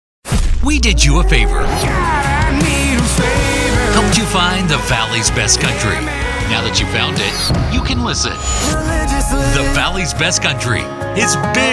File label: Voiceover, men